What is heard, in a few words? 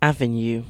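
"avenue", British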